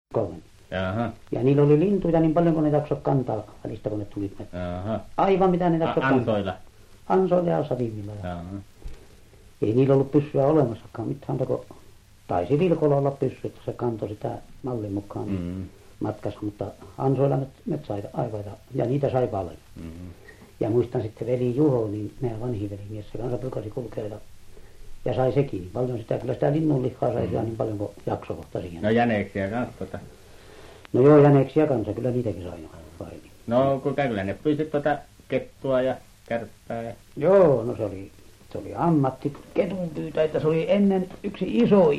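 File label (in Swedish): Övertorneå Norrbotten, dialekter; Folkminnen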